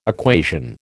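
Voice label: "equation", American English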